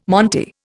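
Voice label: "monte", Indian English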